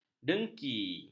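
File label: "dengki", Malay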